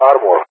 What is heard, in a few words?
"armor", ENG; Target; Allied Flight; DCS